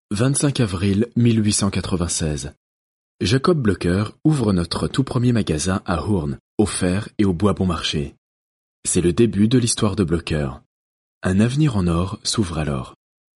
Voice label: French M Narrative; voice over